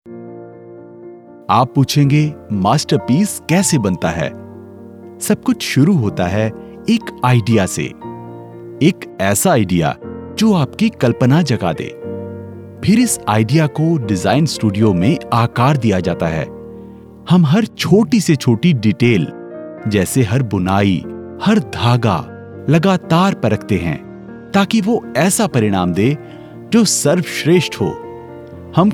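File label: hin, Hindi Voice Over Artist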